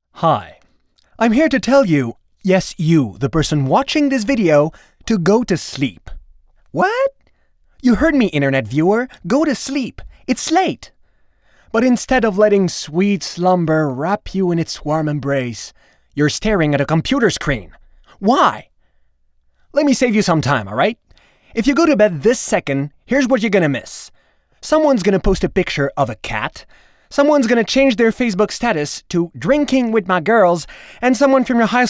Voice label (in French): funny skit anglais canada normatif personnage humour comedie adulte medium